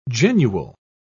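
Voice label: "genual", British English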